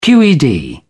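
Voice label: "qed", British English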